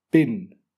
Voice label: "pin", english